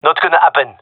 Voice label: disagree, male; vo